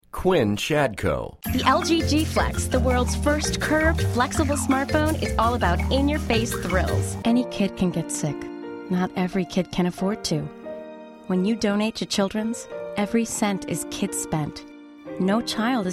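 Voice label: Commercial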